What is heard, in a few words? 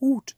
Zombie